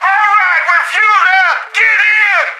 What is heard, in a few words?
radio arrived, plane pilot; Left 4 Dead 2; Source Engine; video games; npc